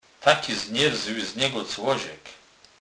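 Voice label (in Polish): "znerzly", Kurpie dialect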